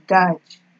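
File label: "gage", Nigerian Pidgin, female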